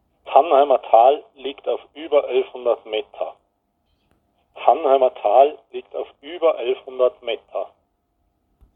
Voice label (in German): Mundart; tannheimertal